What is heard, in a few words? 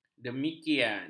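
"demikian", Malay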